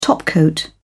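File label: "topcoat", British English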